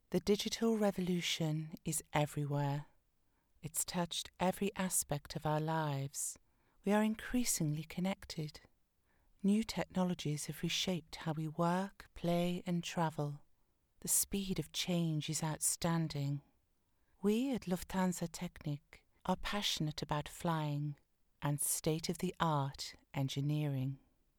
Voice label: E-Learning Engineering